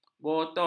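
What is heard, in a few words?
"botol", Malay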